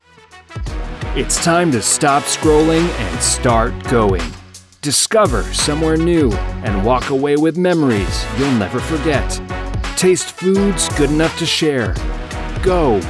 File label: Ad Sample, Professional Male Voice Over Talent American-English Speaker